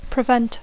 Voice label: "prevent", English; verb tr conj prevenir; impedir; evitar